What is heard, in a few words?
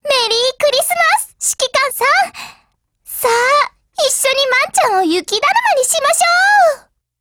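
Christmas, Japanese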